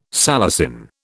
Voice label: "salicin", British